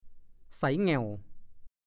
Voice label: "ใสแหง่ว", ภาษาโคราช; ดูคำว่า ใสหง่าว

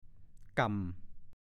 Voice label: "ก่ำ", ภาษาโคราช

